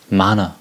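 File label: "mana", US English; pronunciations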